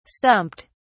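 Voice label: "stumped", English, uk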